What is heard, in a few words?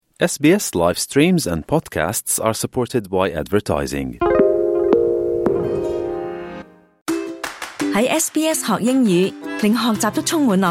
cantonese; sbs